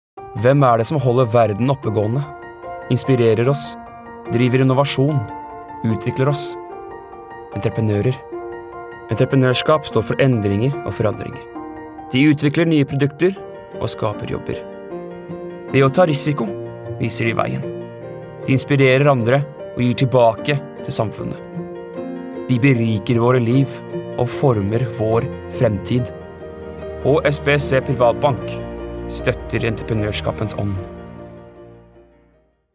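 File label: Norwegian Corporate; GoLocalise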